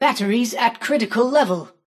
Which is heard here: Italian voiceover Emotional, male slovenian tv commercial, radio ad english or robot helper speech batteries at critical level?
robot helper speech batteries at critical level